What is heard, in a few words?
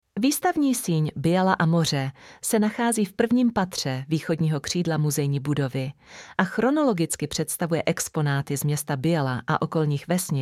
Museum Czech; audiopruvodce muzeem